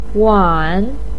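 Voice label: "wan", Mandarin pinyin, third tone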